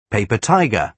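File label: "paper tiger", British English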